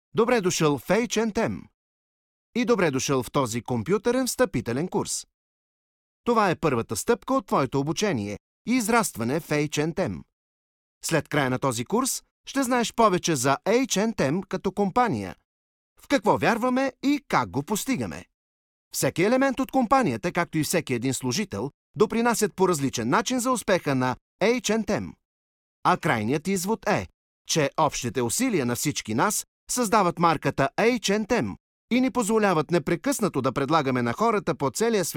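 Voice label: Bulgarian, male, e-learning; voice demos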